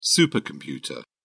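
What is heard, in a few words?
"supercomputer", English, uk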